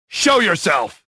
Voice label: suspicious; XBOX; rebel